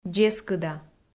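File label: "дзескыда", Komi, female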